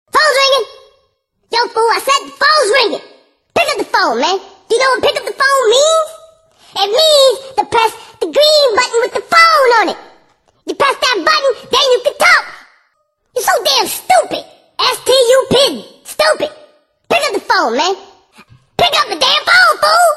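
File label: pick up the phone fool angry baby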